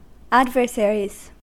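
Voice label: "adversaries", US English; pronunciations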